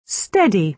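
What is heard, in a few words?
"steady", British English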